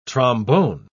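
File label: "trombone", spoken word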